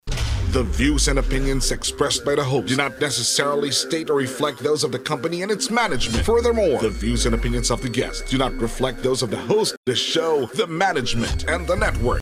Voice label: network disclaimer